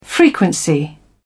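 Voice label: "frequency", British English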